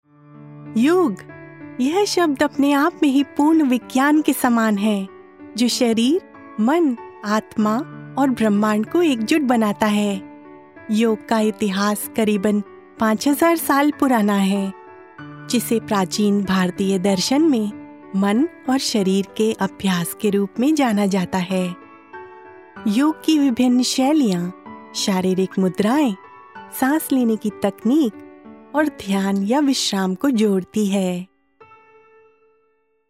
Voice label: Hindi Narrative; Yog